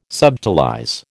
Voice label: "subtilize", American